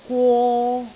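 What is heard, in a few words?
"gwo3", Cantonese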